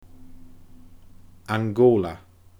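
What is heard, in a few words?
"Angòla", Scottish Gaelic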